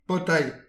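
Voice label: "potailh", Breton; petit precis de prononciation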